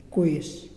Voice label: rain, Muyuw; Lae Unitech